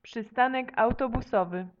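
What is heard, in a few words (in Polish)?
"przystanek autobusowy", Polish; multimedia glossaries; transport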